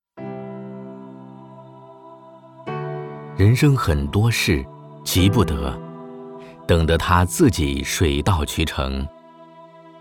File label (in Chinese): 【旁白】, 国语; 人生很多事